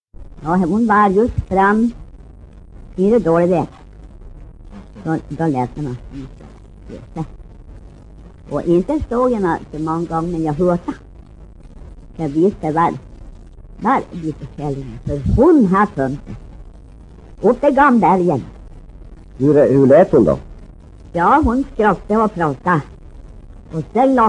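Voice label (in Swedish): Bygdeå Västerbotten, dialekter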